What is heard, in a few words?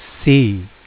name c, phonics; フォニックス